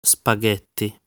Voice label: "Spaghetti", Italian